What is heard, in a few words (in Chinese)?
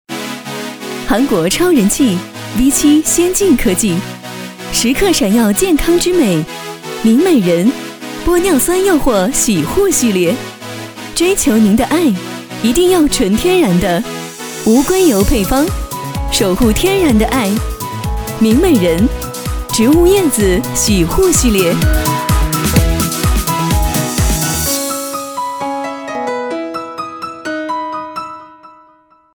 女 电视广告 护发护肤